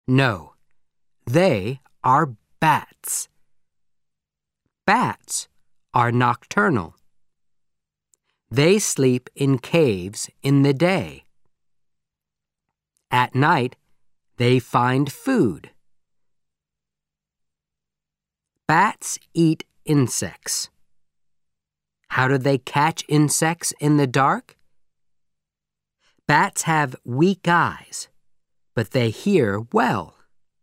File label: Audio American English